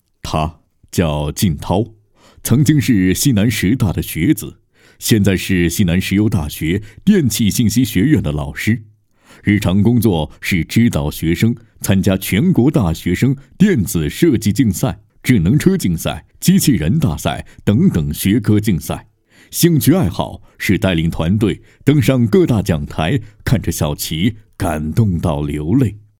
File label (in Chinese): 人物宣传片, 大气; 大气人物